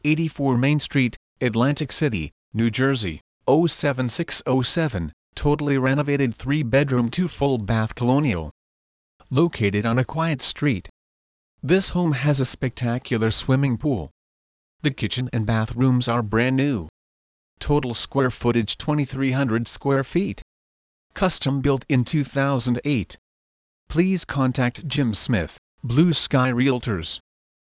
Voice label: male voice